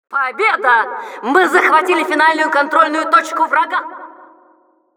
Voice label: Announcer success captured final control ru